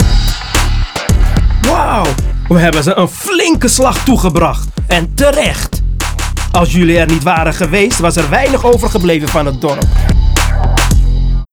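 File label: outro victory Dutch; arthur and the revenge of maltazard; Wii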